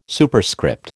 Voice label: "Superscript", American